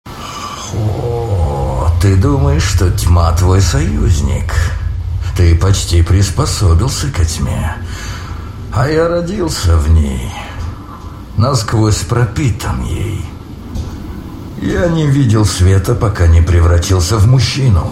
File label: rus